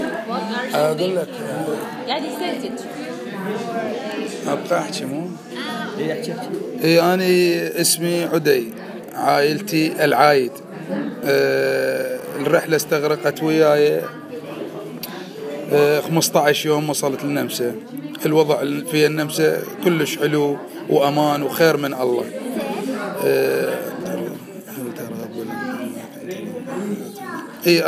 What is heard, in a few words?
Arabic